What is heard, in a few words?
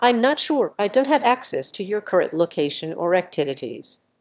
emotion; S2S-Bench; LLaMA omni